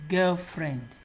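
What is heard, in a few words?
"girlfriend", Nigerian Pidgin, male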